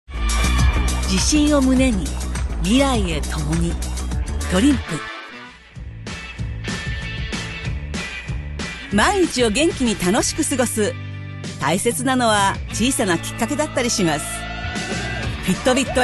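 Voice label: Japanese, female, Commercial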